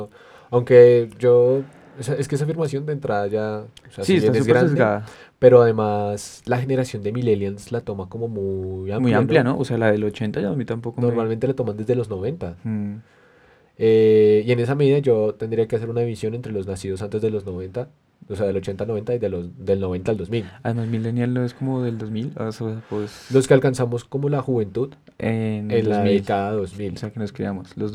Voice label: spontaneous